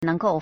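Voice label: "neng2gou4", Mandarin Chinese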